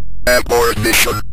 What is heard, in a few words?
abort mission, Synth Voice; Kenney's Sound Pack; Synth Voice 1